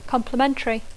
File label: "complementary", English; Dacco